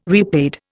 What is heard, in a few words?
"repaid", Indian